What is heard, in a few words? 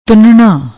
"toonanna", Punjabi; ਤੁੰਨਣਾ